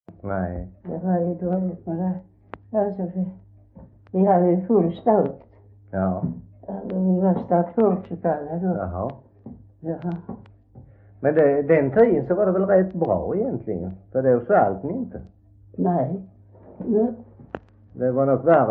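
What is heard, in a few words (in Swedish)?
Svedala Skåne, Svenska sagor dialekter; Folkminnen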